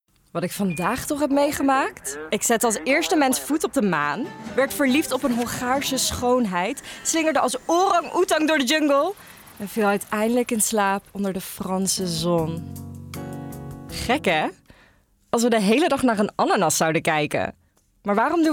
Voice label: voices female dutch compilation